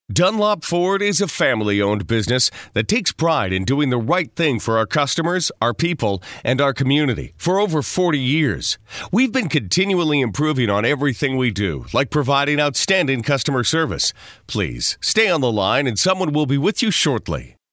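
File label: English American male voice message on hold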